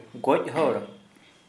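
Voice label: dead body, Santali, male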